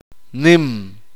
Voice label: "grande", Uspanteko